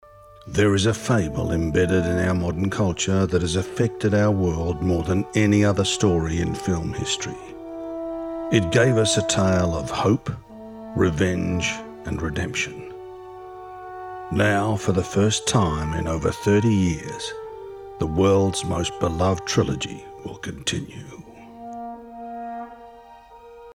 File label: movie trailer